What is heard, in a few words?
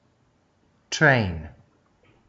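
"train", Australian English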